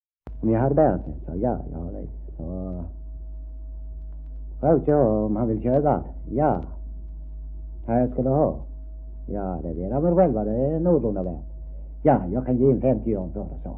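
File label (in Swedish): Degeberga Skåne, svenska sagor dialekter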